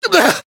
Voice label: Voice damaged